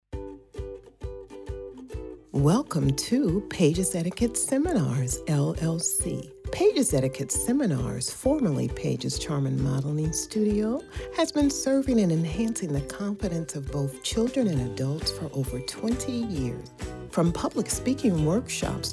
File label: Commercial; Paiges Etiquette